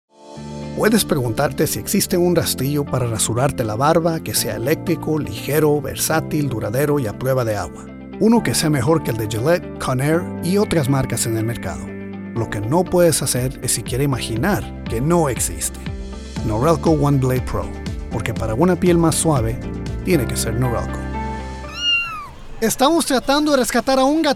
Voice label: languages men spanish

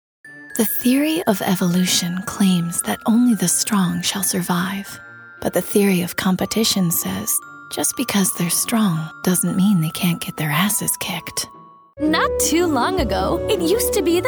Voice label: commercial female; commercial women

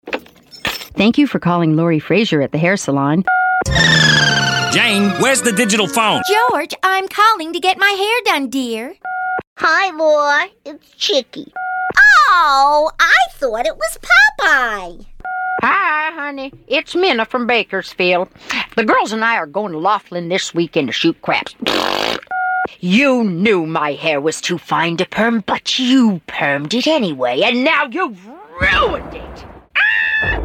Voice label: animation

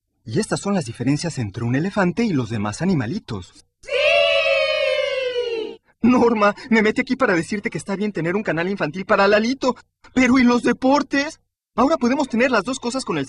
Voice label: languages spanish

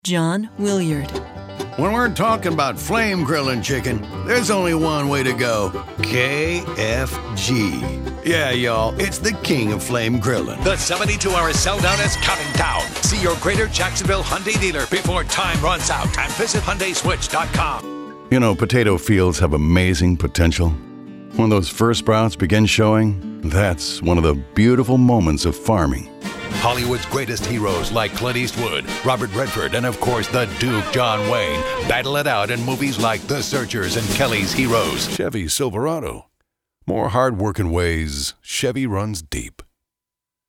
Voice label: commercial men

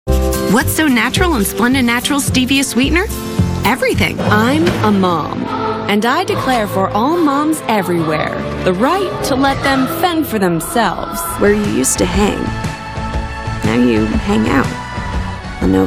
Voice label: commercial women

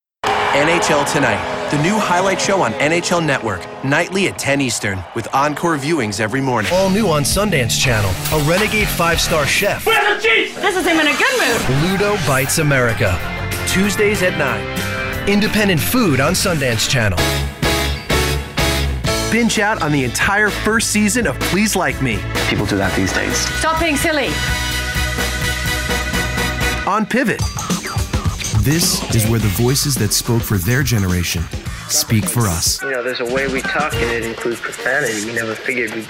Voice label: promo, men

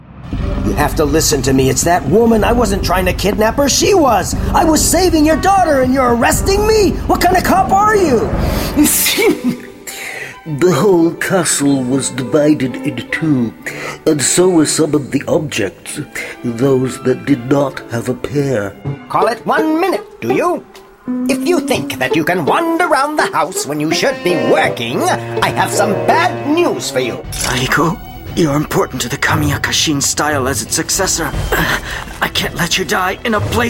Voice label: animation

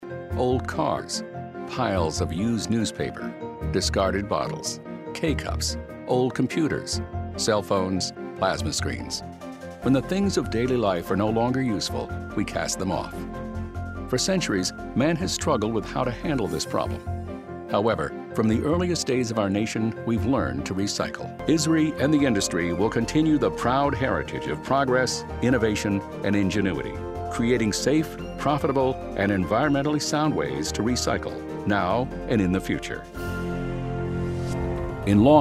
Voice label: narration industrial

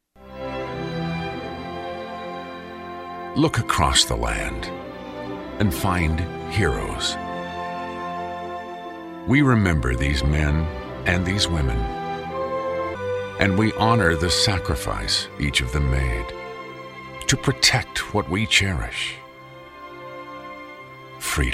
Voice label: commercial, men

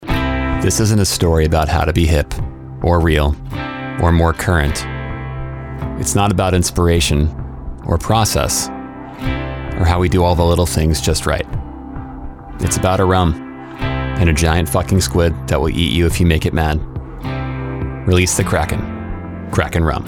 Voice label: commercial men

